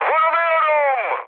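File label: Turkish aircraft damaged, mood med, speech; War Thunder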